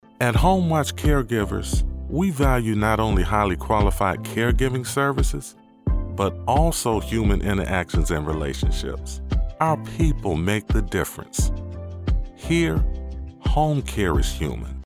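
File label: Commercial, voiceover; HomeWatch CareGivers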